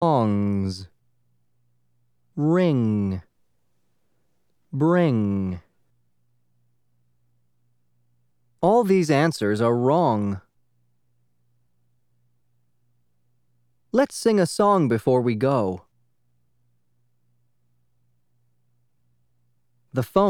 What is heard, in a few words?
simple consonants